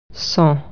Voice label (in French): "without", French